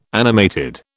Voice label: "animated", British